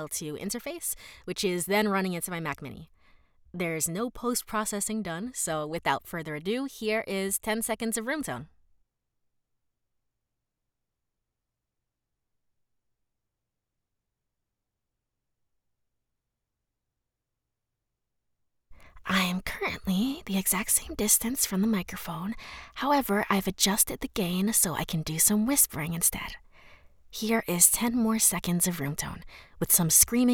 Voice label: Home Studio Raw Audio Sample